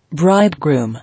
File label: "Bridegroom", English